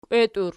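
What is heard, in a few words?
"kweti" plural lips, Archi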